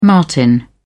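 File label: "martin", British English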